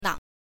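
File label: "nang", Mandarin Chinese, neutral tone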